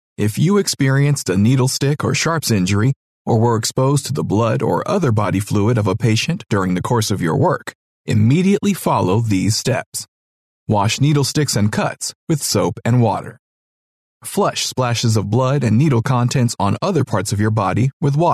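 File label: English US, male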